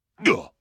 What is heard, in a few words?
pain, combine soldier; hl2